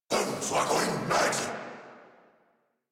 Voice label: Reload Corrupted Grineer Male